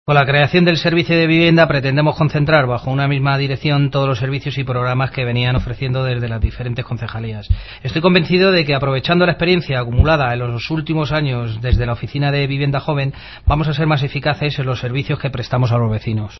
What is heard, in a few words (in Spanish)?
noticias